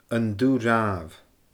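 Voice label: "An Dubh Ràmh", Scottish Gaelic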